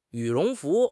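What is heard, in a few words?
"羽绒服", Mandarin Chinese; HSK6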